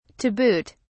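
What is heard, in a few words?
"to boot", British English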